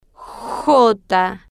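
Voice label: "jota", spanish, slow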